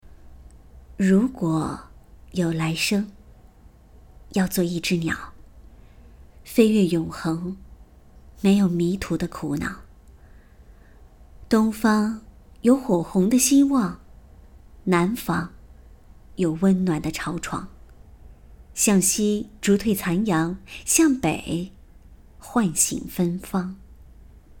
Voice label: 情感类

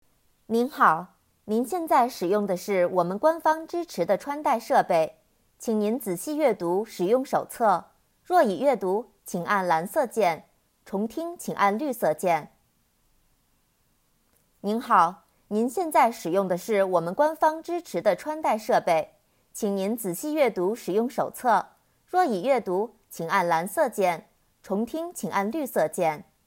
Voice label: 提示语

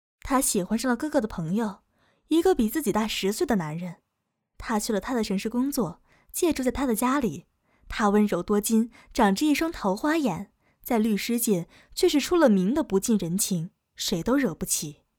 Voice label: 旁白; 盛世宠婚半夏

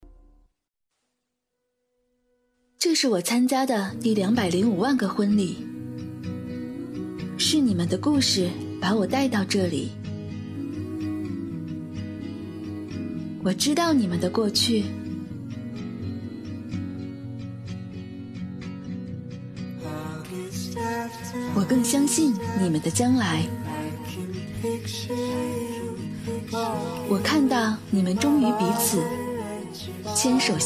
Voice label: 品牌广告

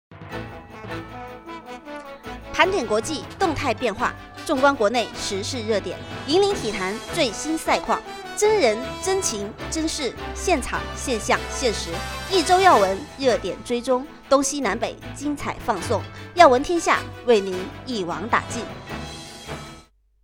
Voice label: 新闻评论

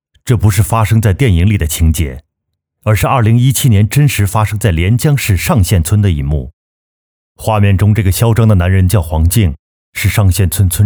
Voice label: 旁白; 今日说法类旁白

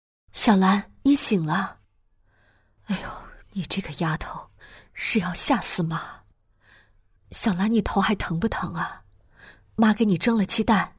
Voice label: 温柔关切妈妈

